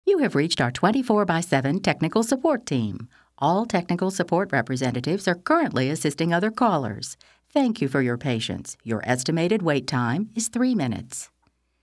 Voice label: English Voice; Phone Technology; Clear Voice